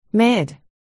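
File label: "mid", spoken word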